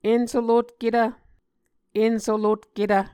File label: "ensolotketv", Muscogee